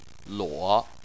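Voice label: "luo" third tone, Chinese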